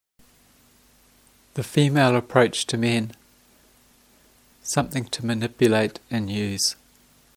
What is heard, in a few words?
female men approach